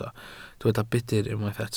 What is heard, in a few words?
"budr", Welsh